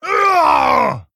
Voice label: Male Orc Death

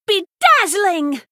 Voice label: Female Human Attack

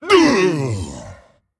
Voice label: Male Dragon Death

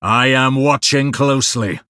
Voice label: Male Blood Elf TRIGGER Tracking

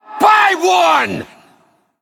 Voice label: Male Undead Attack

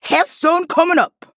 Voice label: Female Troll Attack

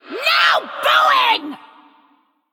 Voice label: Female Banshee Attack

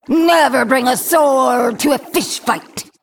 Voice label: Female Jinyu Play

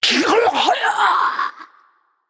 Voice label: Female Naga Death

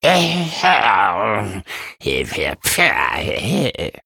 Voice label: Male Beast Emote Hero Greetings; Bacon Shop

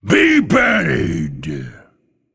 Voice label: Male Drakonid Attack

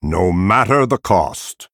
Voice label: Male Night Elf MIRROR START

